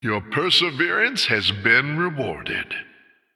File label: Male Human After Triple